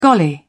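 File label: "golly", British English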